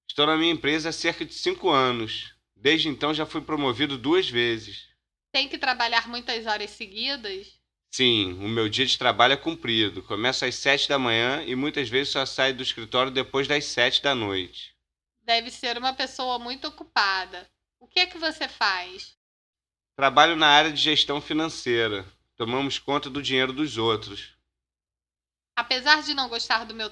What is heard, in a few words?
Eating Out, Portuguese